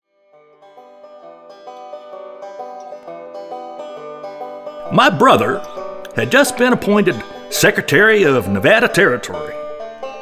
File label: Audio Books; Twain